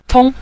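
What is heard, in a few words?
"tong", Mandarin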